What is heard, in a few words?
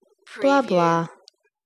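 Woman Blah Blah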